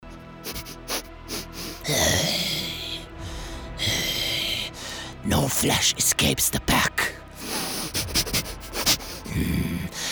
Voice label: video game mocap, game dubbing male voices international accent